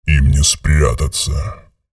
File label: menu chatter; visor